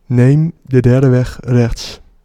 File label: 3rd Right, Dutch, male